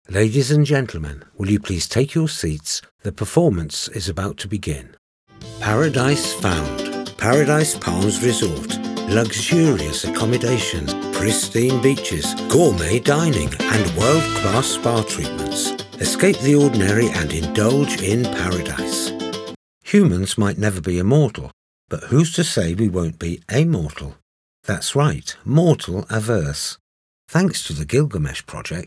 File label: Voice Over Reel, commercials